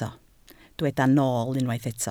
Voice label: "nool", Welsh

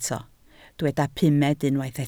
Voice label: "pumed", Welsh

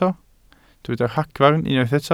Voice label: "ragfarn", Welsh

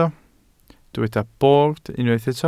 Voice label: "bord", Welsh

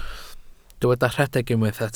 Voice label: "rhedeg", Welsh

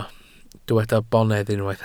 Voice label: "bonedd", welsh

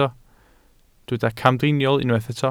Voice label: "camdriniol", Welsh

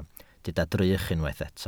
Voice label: "drych", Welsh

